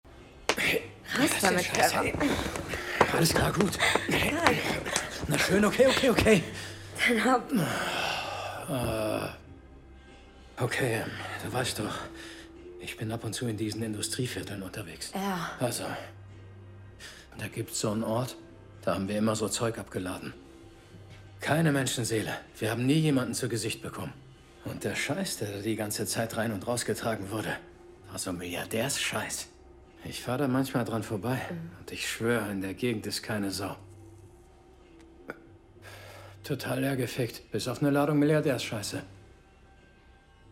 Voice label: Dubbing; hellraiser